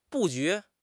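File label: "布局", Mandarin Chinese; HSK; HSK6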